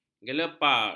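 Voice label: "gelepar", Malay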